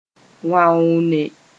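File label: "wãoone", Mooré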